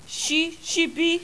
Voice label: "S sibi", Yoruba; ALPHABET RHYME IN BITS

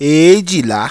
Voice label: "EEJILA", Yoruba

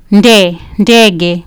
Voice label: "nde" "ndege", Kiswahili

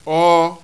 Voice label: "OH oh", Yoruba; ALPHABET

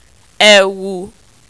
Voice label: "ewu", Yoruba

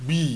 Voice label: "GB gb", Yoruba; ALPHABET

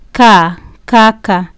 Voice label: "kaka", Kiswahili

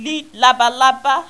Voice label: "L LABALABA", Yoruba; ALPHABET RHYME IN BITS

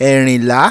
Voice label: "Erinla", Yoruba

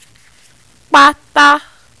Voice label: "pata", Yoruba

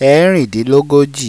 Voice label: "Erindinlogoji", Yoruba

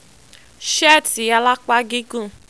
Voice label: "seeti alapa gigun", Yoruba

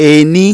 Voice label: "Eni", Yoruba

